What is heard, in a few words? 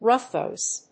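"rough voice", English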